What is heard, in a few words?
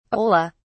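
"ola", British English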